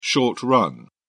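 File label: "short-run", British English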